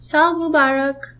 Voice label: new year, gujarati; સાલ મુબારક; sāl mūbārak

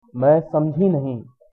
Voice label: no understand, hindi

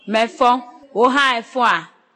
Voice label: fine, ewe

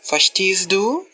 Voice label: do you understand, luxembourgish

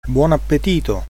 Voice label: bon appetit, italian

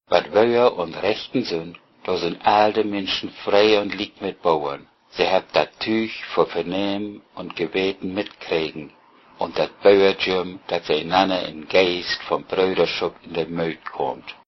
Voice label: udhr lowsaxon

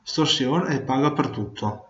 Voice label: pay, Venetian

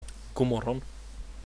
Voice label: good morning, swedish

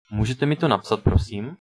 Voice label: write, czech

